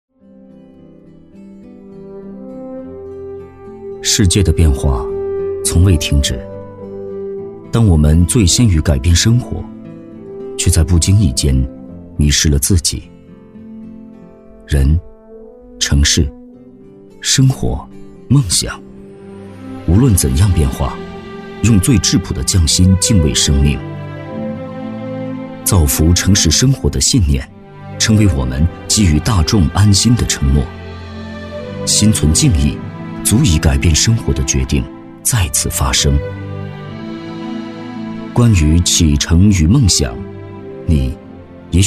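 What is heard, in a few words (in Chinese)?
国语 男声 广告 大气浑厚磁性; 城市生活广告-公益广告-沉稳舒缓; 科技感; 积极向上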